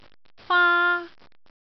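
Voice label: "faa1", Cantonese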